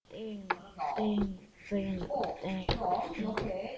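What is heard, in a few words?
"ding", spoken word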